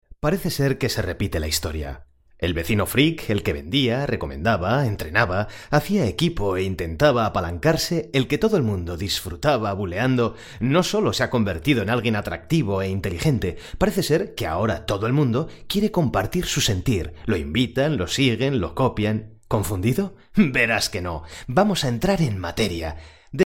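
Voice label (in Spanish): Spanish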